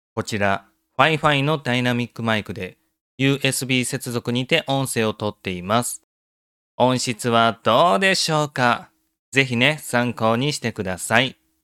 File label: fifine tank3